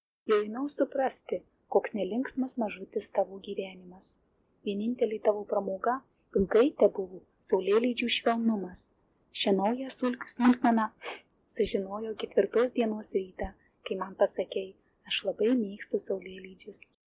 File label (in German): Litauisch Kalvarija